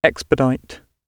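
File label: "expedite", British English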